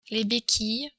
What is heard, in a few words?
"bequille", French